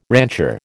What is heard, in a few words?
"rancher", American